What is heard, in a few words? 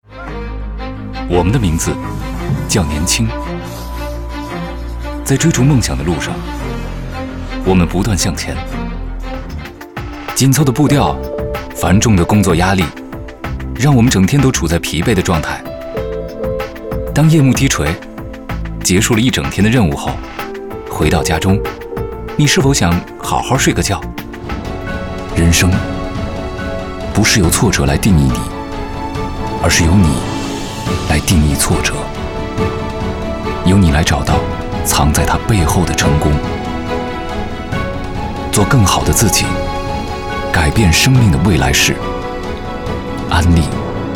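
Mandarin Chinese, male, commercial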